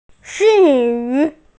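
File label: "food", Mandarin; shi2wu4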